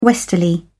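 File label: "westerly", British English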